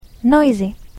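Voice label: "noisy", English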